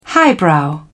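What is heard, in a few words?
"highbrow", English, British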